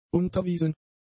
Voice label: "unterwiesen", German; adjectives